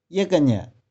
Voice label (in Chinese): "ih4keh4nih4", 玉環